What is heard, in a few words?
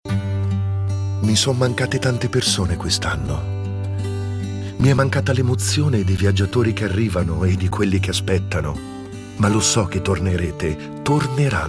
male italian comercial; ideasonora